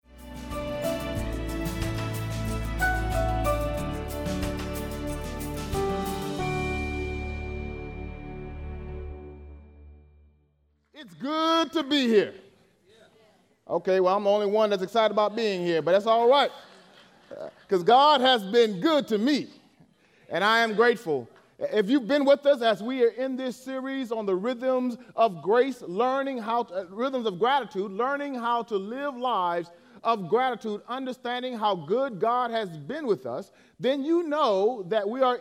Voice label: English Sermon